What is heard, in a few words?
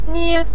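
"nie", Mandarin Chinese neutral tone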